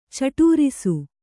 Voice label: "ಚಟೂರಿಸು", Kannada